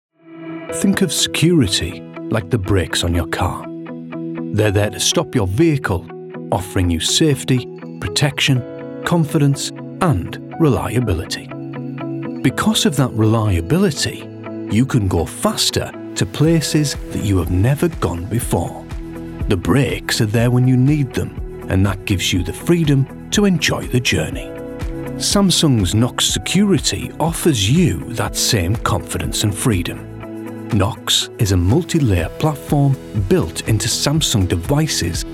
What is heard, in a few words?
CORPORATE; ProReel